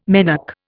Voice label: "menarche", Indian